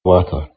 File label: "watter", Scots